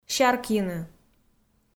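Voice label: "сяркйыны" Komi, female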